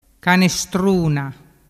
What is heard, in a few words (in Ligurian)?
"canestruna", dialettu